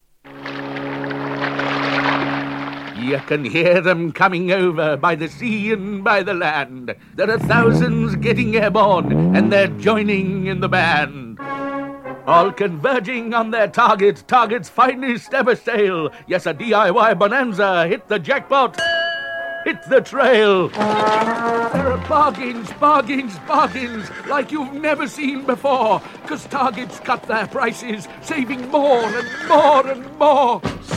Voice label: Radio Advert; Radio Hallam; Target DIY - Finest Ever Sale Jackpot